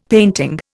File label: "painting", Indian; Dictionary